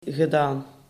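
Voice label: "gedaan", Dutch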